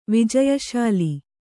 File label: "ವಿಜಯಶಾಲಿ", kannada